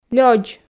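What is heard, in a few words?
"лёдз", Komi, female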